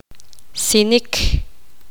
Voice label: poqomam; hormiga